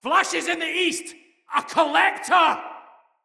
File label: Fearful Cry, Soldier Male; Dendrit